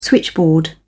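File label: "switchboard", British English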